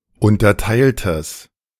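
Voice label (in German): "unterteiltes", German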